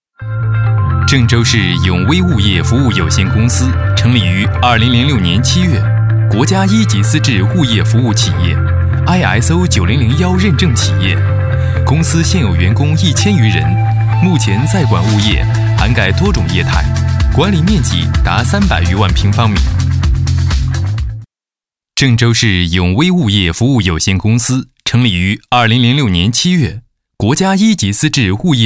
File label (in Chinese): 男 专题 物业公司